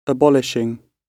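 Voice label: "abolishing", British English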